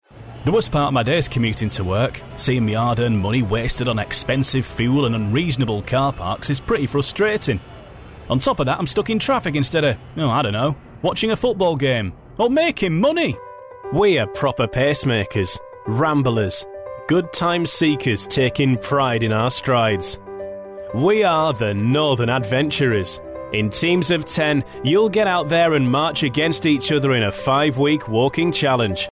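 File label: English, male, Northern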